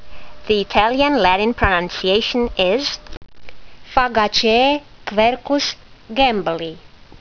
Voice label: latin; Quercus gambelii